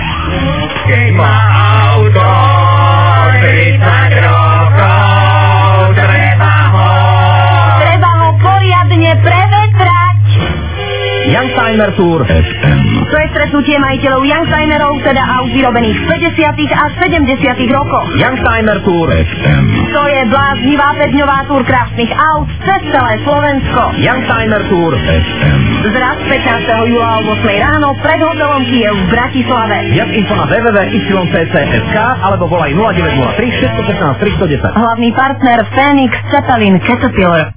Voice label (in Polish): reklama; youngtimer classics club; tour fm 2006